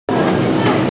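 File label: "jing cha", Mandarin Chinese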